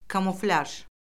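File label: "kamuflyaj", Azerbaijani; Orfoqrafiya Lüğəti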